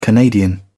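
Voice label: "canadian", British English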